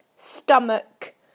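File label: "stomach", British English; PictureDictionary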